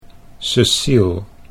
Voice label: "Ceciel", Dutch

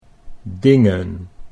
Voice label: "dingen", Dutch

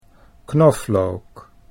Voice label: "knoflook", Dutch

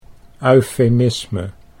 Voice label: "eufemisme", Dutch

